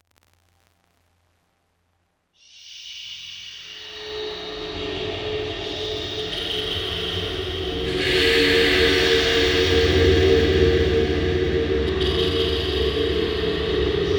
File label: Creepy Voices